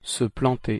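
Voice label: "se planter", French pronunciation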